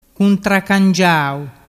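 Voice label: "cuntracangiau", Vernazzese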